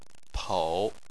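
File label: "pou", Chinese, tone 3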